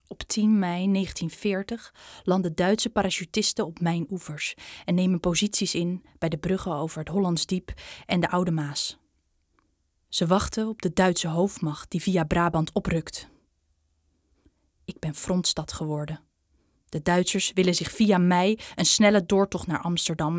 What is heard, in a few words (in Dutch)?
audiotour